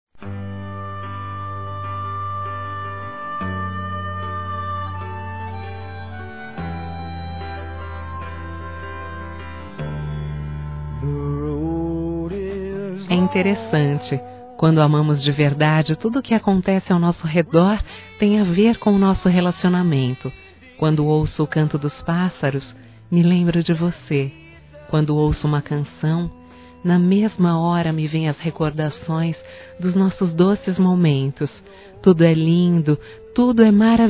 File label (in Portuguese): ROM AMOR PARA SEMPRE, Românticas, fem